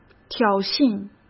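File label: "挑衅", Mandarin Chinese; hsk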